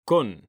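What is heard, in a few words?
"CON", Spanish; mekanta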